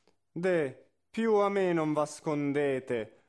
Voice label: espressivo; Bononcini; Deh piu a me non v ascondete

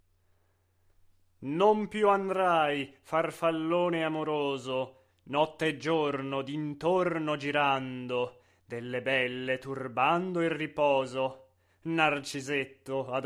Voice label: espressivo; Le nozze di Figaro

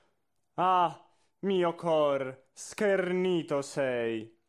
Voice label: Ah mio cor espressivo

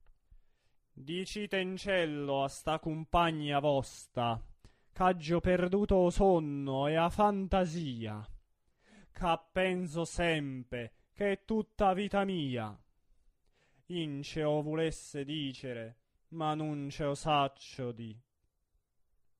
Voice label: Dicitencello vuje espressivo

